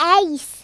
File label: excellent, Speech Kidz; Worms Armageddon